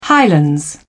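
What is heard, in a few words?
"highlands", English, British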